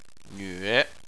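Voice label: "nve" third tone, Chinese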